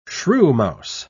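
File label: "shrewmouse", British English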